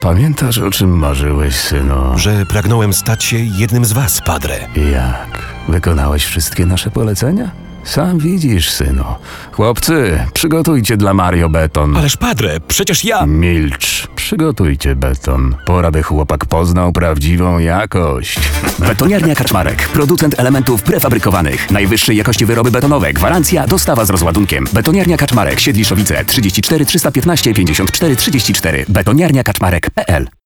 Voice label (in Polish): reklama radio